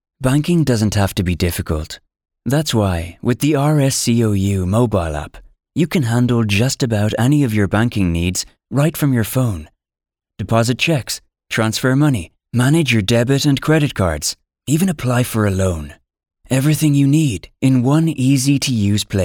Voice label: Sincere